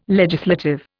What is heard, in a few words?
"legislative", Indian